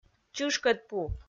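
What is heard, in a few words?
"чышкӧдпу", Komi, female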